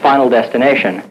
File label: LoFi Phrases, LoFi Vocals; Vengeance Samples